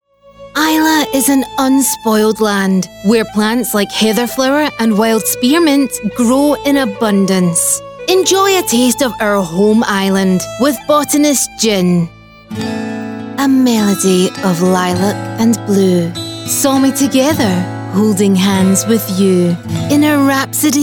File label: Scottish Commercial; AdvantageIVR